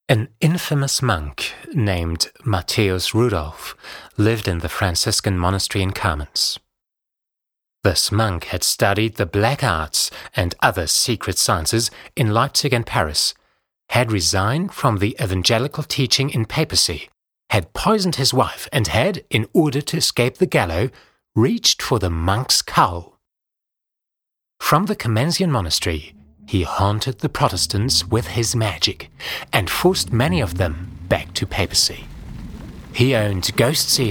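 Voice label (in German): British English Schauspiel Variabel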